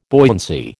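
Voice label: "buoyancy", American English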